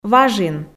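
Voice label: "важин", Komi, female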